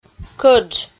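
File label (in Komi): "кӧдж", Komi, female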